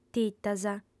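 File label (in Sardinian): "titas", female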